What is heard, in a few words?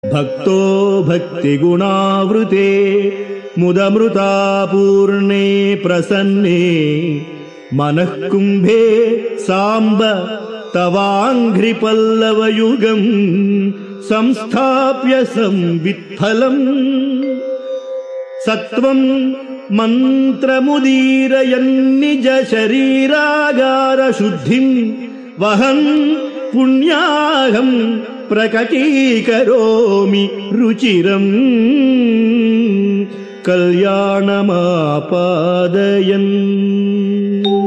Sanskrit; Sri Adi Sankaracharyas Sivananda Lahari; Adi Shankaracharya; श्रीशिवानन्दलहरी ३६